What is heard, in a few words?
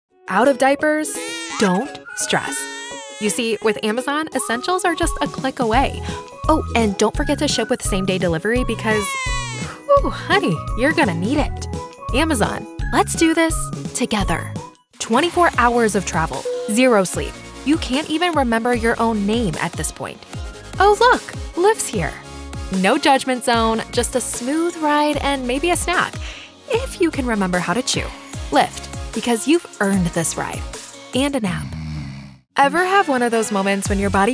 Commercial